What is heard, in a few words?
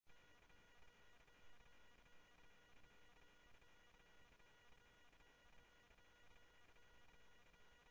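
Sheriff East County, scanner audio trunk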